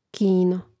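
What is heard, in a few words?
"kino", Croatian; Mrežnik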